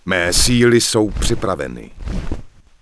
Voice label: Human Male Incoming, Human Vocal Male; wowpreklad; czwow